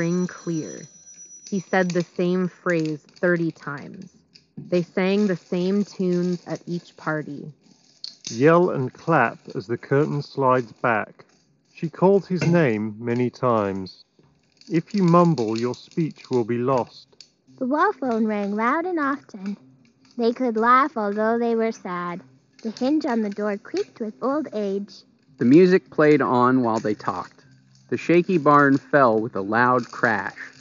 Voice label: Beyerdynamic Free Byrd Office microphone

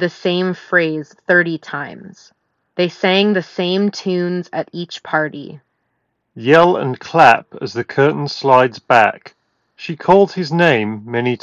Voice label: Ideal microphone sample; earfun free pro 3

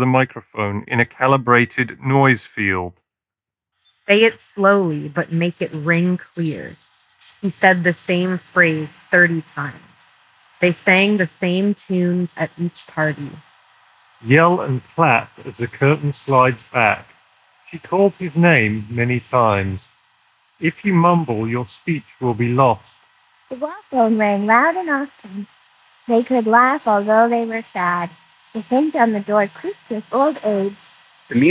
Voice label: Bose QuietComfort Ultra Earbuds 2nd Gen street

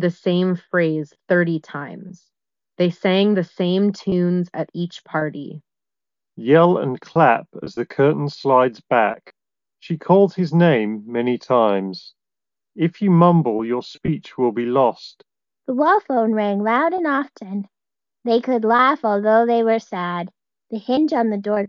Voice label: Status Between 3 ANC Ideal microphone sample

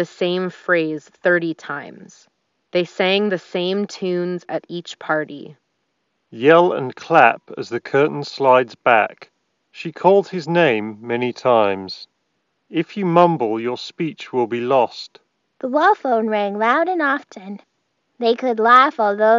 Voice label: Ideal microphone sample; Edifier Stax Spirit S3

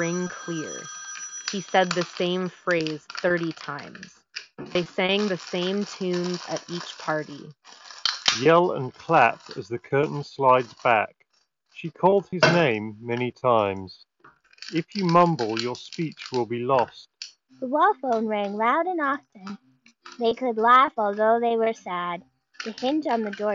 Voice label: Soundcore Life Q20 Office microphone sample; Anker

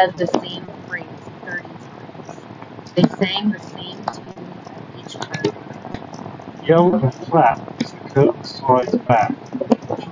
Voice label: TOZO NC9 Wind microphone sample

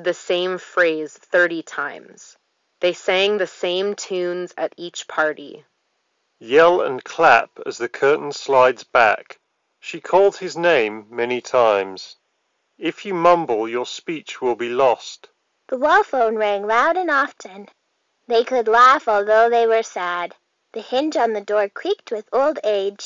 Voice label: Ideal microphone sample; Sennheiser Accentum Plus

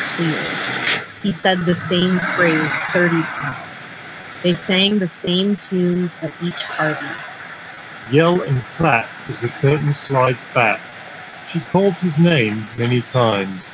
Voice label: Skullcandy Dime 2 Street microphone sample

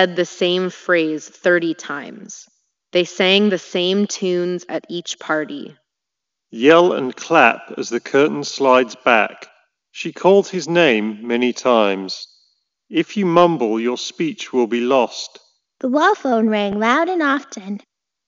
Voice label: Sony Inzone h9 Reverb microphone sample

